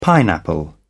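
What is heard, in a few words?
"pineapple", British English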